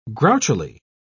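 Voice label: "grouchily", British English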